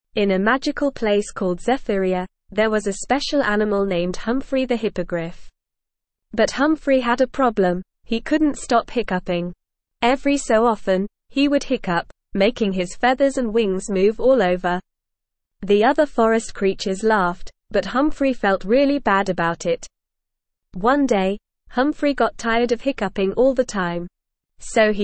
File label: ESL Short Stories for Kids Upper Intermediate NORMAL Reading; The Hiccuping Hippogriff